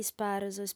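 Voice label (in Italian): "isparos", lingua sarda, fem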